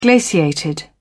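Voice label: "glaciated", English, British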